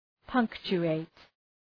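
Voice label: "punctuate", English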